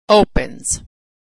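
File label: "opens", American English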